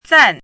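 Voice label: "zan4", Mandarin pinyin syllable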